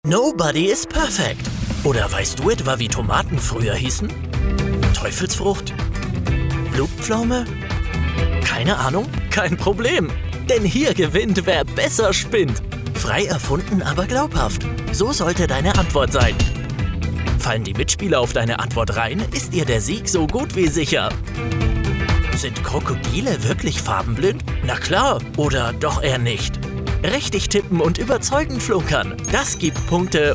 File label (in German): Werbung Web; Ravensburger